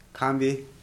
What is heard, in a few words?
"grave", Korku